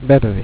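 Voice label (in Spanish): "verde", Spanish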